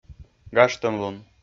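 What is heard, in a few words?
"гажтӧмлун", Komi-Zyrian, male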